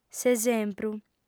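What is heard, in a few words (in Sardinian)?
"s'esempru", female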